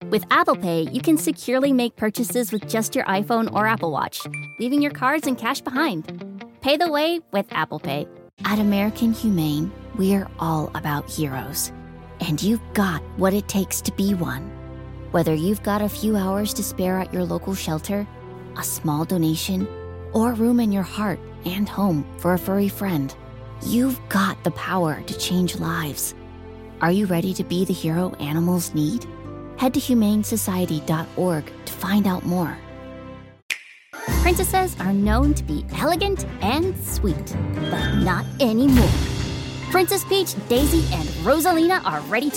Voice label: Commercial